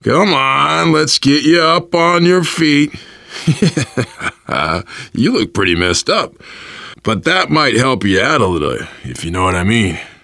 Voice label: revive friend, voice, biker; Left 4 Dead 2; survivor